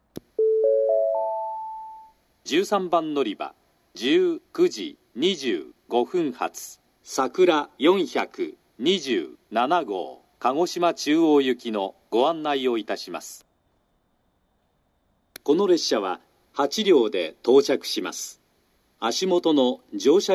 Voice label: 13番のりば案内放送, 新幹線ホーム; 新鳥栖; さくら427号 鹿児島中央行き

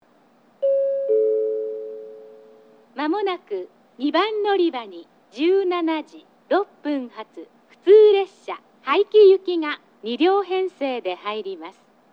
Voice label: 2番のりば接近予告放送（普通）; 2番のりば接近予告放送（普通 早岐行き）; takeoonsen

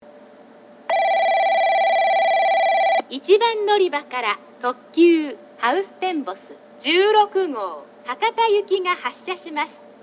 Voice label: 1番のりば発車放送; ハウステンボス; 1番のりば発車放送（ハウステンボス16号 博多行き）; ※ワンマン化後の放送

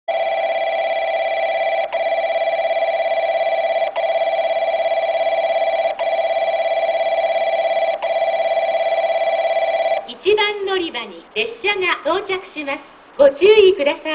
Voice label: 1番のりば接近放送; ハウステンボス; 折り返し ハウステンボス82号 博多行き

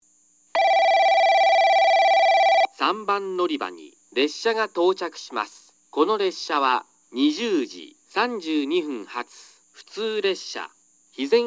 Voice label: 3番のりば接近放送（普通 肥前浜行き）; 2020年改正で設定された、貴重な長崎本線への直通列車です。

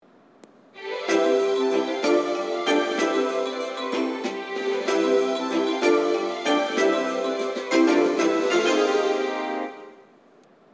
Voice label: 嬉野温泉, 11番のりば発車メロディ＋発車予告放送

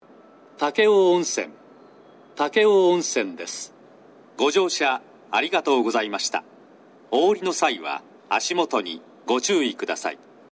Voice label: 11番のりば 到着放送, 男声放送; 新幹線ホーム

